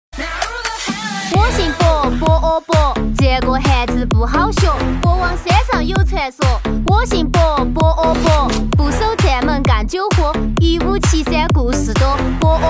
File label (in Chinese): 四川方言 女 四川 说唱; 方言配音; 我姓僰